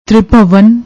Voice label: "tribhavan", Punjabi; ਤ੍ਰਿਭਵਨ

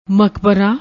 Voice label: "makbra", Punjabi; ਮਕਬਰਾ

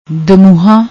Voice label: "dumuha", Punjabi

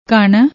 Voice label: "knn", Punjabi; ਕਣ

